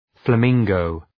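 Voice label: "flamingo", English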